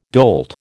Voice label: "dolt", American